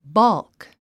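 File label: "balk", English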